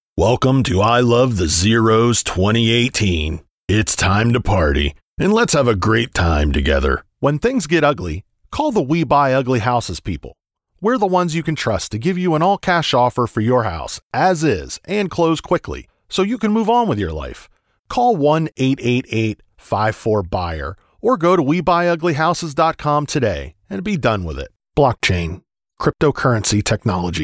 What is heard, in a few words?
English American